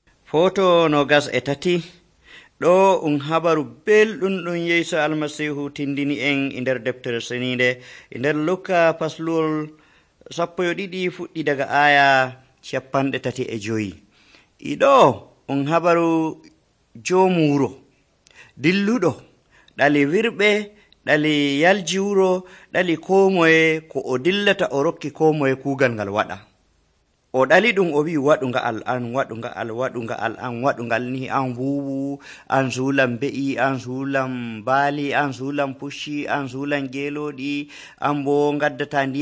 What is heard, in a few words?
fulfulde, drama; fulfulde adamawa abc nt drama